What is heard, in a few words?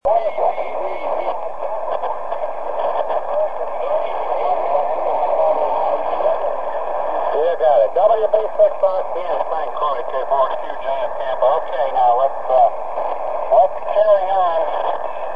AO-13 Mode B SSB QSO; April 11th 1989; Dave Guimont WB6LLO / recorded the Mode-B (2m) downlink of AO-13 with several / SSB signals.